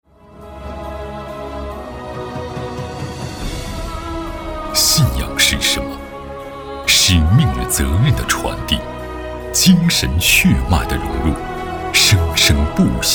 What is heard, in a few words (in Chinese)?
男 宣传 企业 片 大气高端; 中交一航局